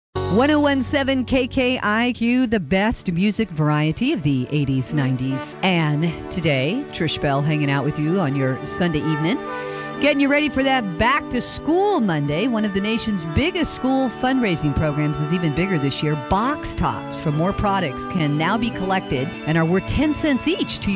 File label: Radio Montage